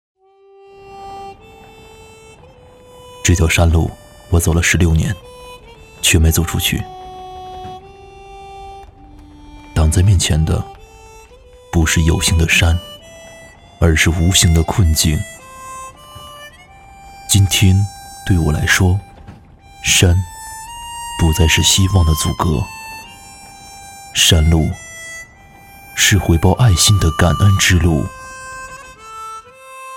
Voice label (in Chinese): 男 旁白内心 低沉 磁性 深情; 感动中国